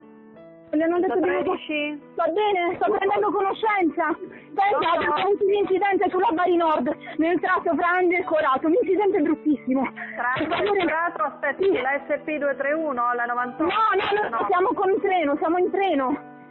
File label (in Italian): Telefonata treni; la strage dei treni in puglia